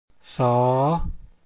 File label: s sound, lao consonant sounds